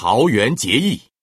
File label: god salvation, male; FreeKill; standard cards